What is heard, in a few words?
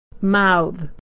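"mouthe", American English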